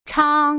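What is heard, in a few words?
"chang", Mandarin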